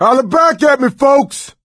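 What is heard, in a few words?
lost call, voice, male; Left 4 Dead 2; coach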